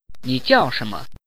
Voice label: Mandarin Conversation